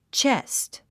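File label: "chest", English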